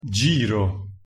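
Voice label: "giro", Italian pronunciation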